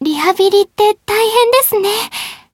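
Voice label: Voice win, Japanese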